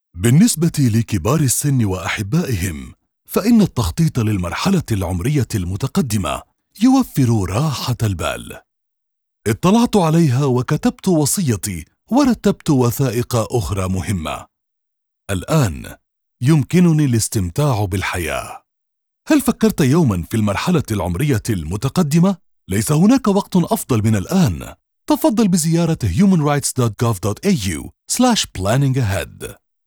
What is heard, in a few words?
Arabic Preparing for later life Radio; AHRC Preparing for later life